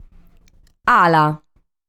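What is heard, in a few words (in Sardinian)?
"Ala", Sardinian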